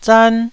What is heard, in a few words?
"zan1", Taiwanese